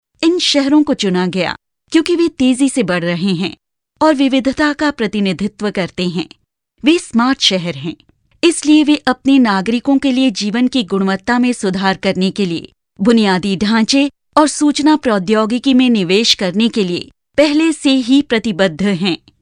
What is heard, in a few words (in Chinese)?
印度语女 外语 小语种